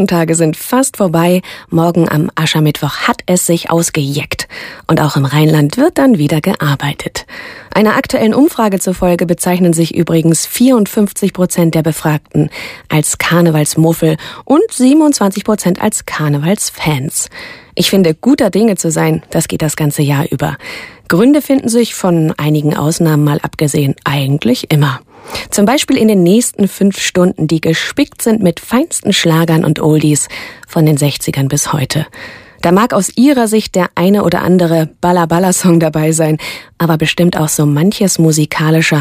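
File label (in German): Nacht Anmoderation Moderation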